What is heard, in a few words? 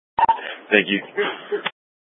Public Transit System Light Rail Ops, scanner